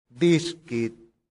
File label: "discit", latin; santillana